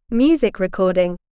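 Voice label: "music recording", English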